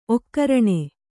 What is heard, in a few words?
"ಓಕರಣೆ", Kannada